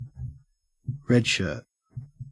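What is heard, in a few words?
"redshirt", Australian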